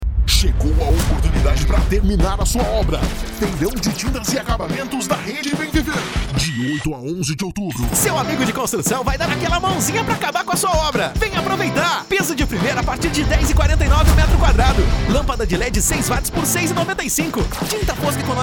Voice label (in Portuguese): Carro de Som; Francisco Beltrão; Difer